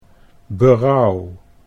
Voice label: "berouw", Dutch